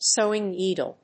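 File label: "Sewing needle", English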